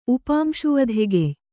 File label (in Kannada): "ಉಪಾಂಶುವಧೆಗೆಯ್", Kannada